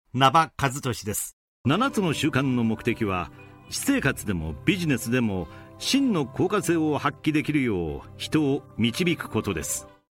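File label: male training, English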